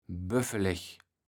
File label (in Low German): "böffeleg", kaevels platt